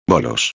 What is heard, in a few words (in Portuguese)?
"bolos", espanhol; palavra do dia